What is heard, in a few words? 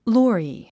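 "lorry", English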